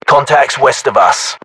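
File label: threat infantry west, battlechatter, British; english; uk; localized english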